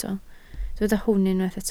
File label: "hwn", Welsh